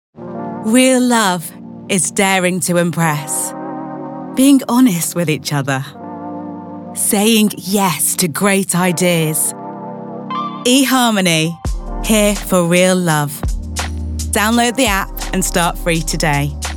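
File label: Commercial Reel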